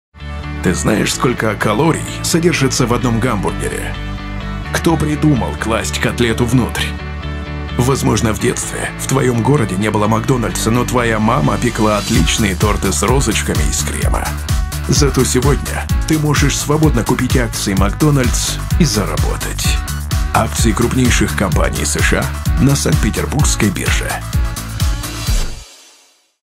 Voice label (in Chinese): 外籍俄语男 宣传片; 麦当劳宣传片MacDonalds